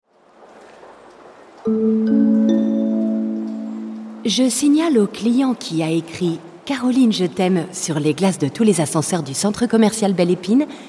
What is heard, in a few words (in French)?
pub comedie; bel epine